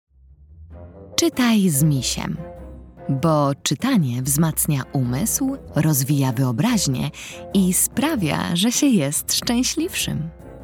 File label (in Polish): narracja; czasopismo mis; wiosna; czytam z misiem